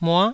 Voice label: "mua9", taiwanese